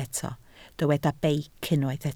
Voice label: "beic", Welsh